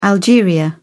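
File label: "algeria", British English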